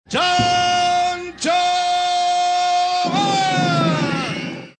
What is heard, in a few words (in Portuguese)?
gritando; chanchoman